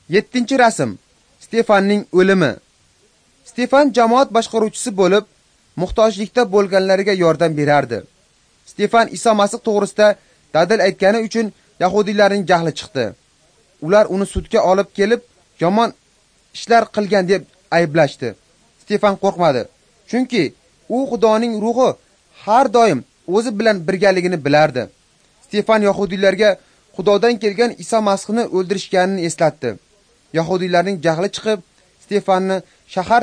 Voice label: uzbek northern; acts of the holy spirit